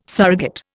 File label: "surrogate", Indian